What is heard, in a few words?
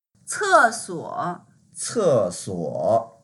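"ce4suo3", Mandarin Chinese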